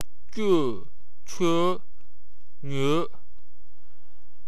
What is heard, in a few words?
"yeu", Wu Chinese